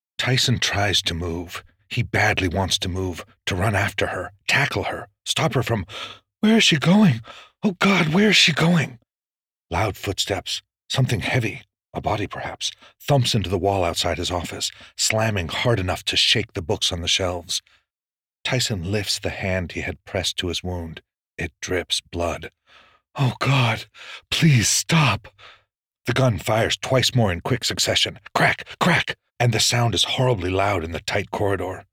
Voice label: Gothic Horror